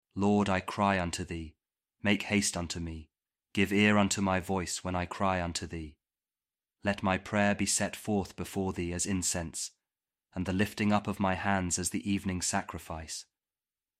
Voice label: psalm kjv king james audio bible